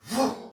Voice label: Attack Soldier Male; Dialog; Barks; Battle; Sinecure